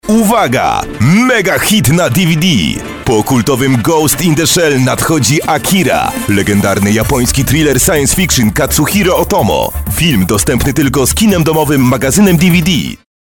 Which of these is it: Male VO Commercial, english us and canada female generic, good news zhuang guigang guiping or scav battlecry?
Male VO Commercial